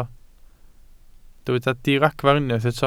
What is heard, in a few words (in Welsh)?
"diragfarn" Welsh